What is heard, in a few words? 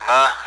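Speech, Russian, AWACS; DCS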